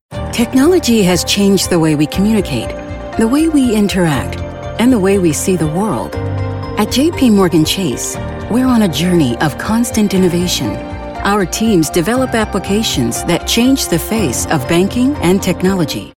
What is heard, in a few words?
Professional, Reassuring, Confident Female Voice, warm; JP MORGAN